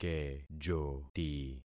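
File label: "kejoti", concatenated syllables; Affixing experiment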